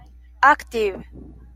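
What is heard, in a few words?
"active", Japanese female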